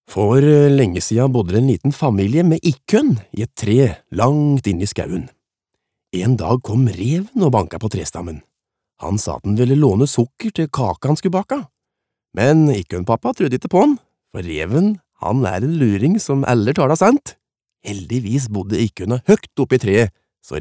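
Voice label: Norwegian childrens book dialekt feiring mjosa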